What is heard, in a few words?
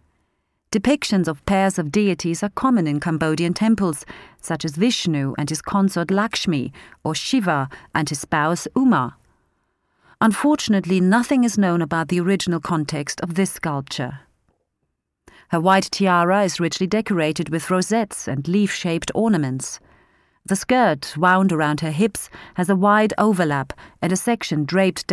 Female Goddess